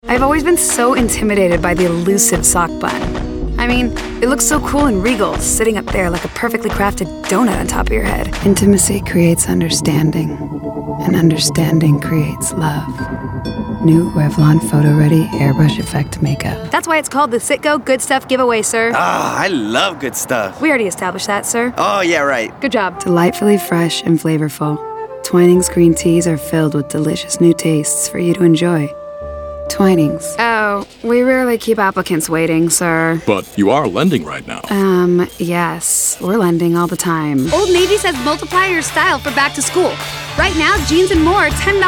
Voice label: Commercial